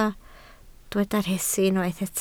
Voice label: "rhesi", Welsh

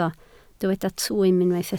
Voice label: "twym", Welsh

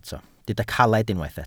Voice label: "caled", Welsh

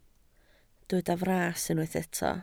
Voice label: "fras", Welsh

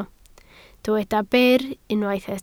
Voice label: "byr", Welsh

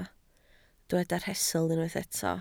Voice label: "rhestl", Welsh

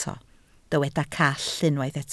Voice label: "call", Welsh

